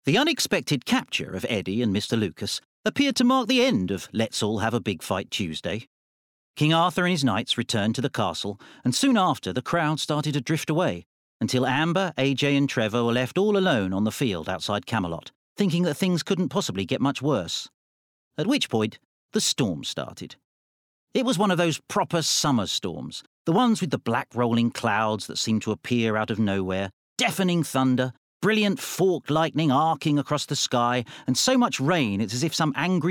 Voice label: Bookread